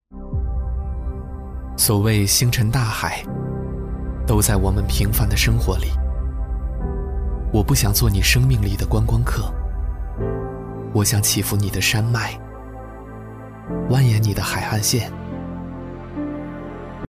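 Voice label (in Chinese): 男国大音-微电影旁白; 【大象映画】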